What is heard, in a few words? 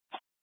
Scottsdale Police, Simulcast